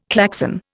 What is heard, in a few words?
"Klaxon", Indian